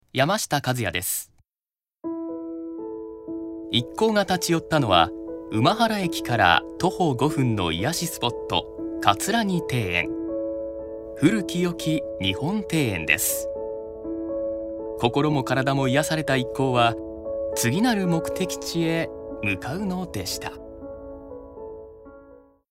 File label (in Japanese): ノーマル